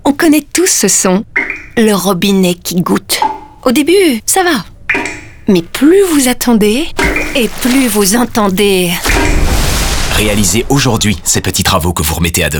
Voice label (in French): Radio Goutte, FR; So-Simply